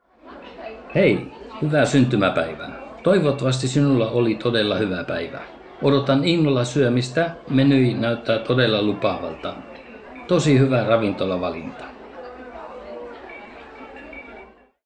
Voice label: dinner finnish with